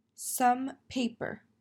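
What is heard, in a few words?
"Some paper", English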